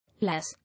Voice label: "Lass", English